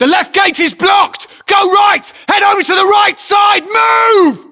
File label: voiceovers, US; english